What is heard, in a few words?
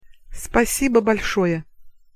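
pronunciation "спасибо большое", Russian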